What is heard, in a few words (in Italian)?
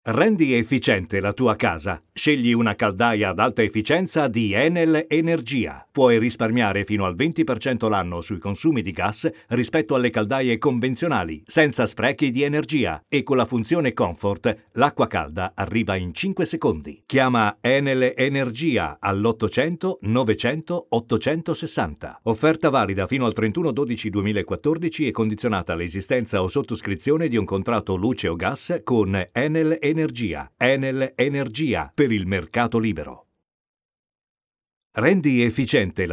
spot radio; enel energia